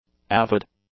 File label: "avid", English; adjectives